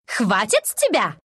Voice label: attack, ru; dota2